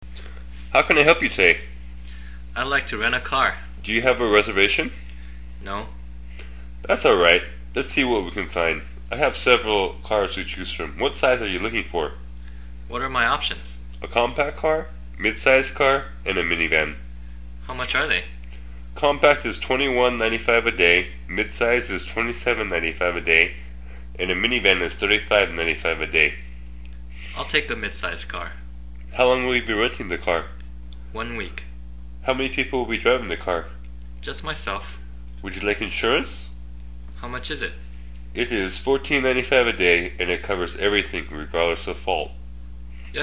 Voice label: Car Rental for English Learners; travel